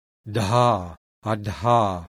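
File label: aspirated voiced alveolar plosive; IPA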